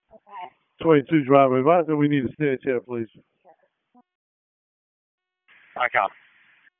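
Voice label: Public Safety Tactical, p25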